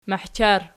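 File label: "mahchar" nominative, Archi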